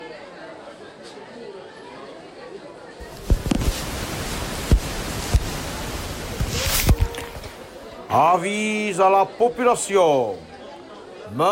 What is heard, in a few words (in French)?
place marche narrateur